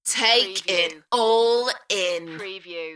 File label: UK female; beanstalk-audio